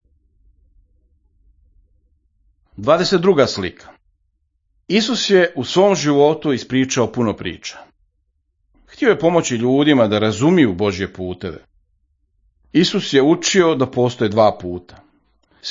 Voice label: Serbo-Croatian Croatian; The Two Roads